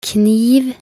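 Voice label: "kniv", Norwegian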